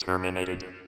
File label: terminated, robotic announcer